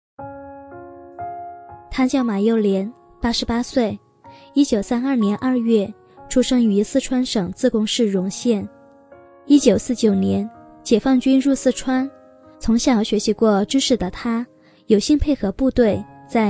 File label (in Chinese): 女 人物介绍 旁白感觉